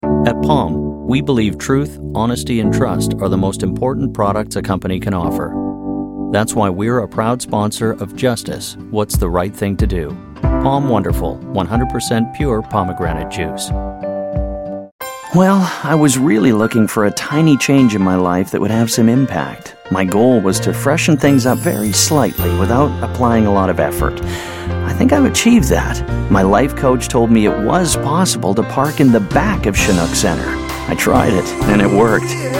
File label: COMMERCIAL DEMO